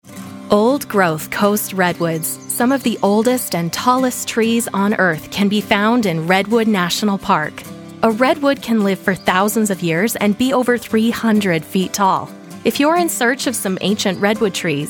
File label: Narration